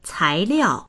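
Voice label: "cai liao", Mandarin; 材料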